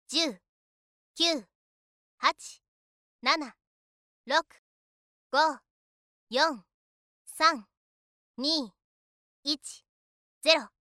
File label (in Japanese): 10から0へカウント